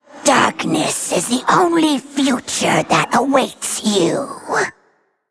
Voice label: Female Undead Idle; Valeera

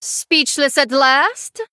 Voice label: Female Draenei Idle; Shadow Priest Xyrella

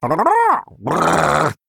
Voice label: Male Murloc

